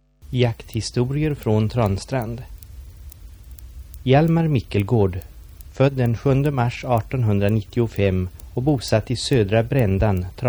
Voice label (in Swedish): Transtrand, dialekter; Svenska sagor; DAL; Folkminnen